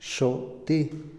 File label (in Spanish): teñir, Otomí, hombre; Ropa; INALI